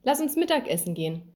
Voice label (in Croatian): njemački; POP UP Zagreb